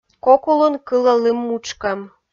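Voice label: "кок улын кылӧ лым мутшкӧм", Komi, female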